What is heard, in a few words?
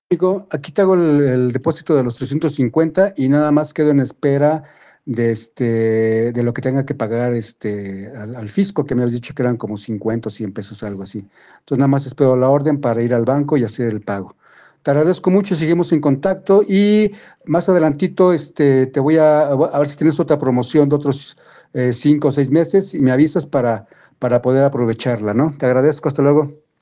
whatsapp ptt